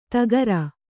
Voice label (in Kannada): "ಧಕಾರ", Kannada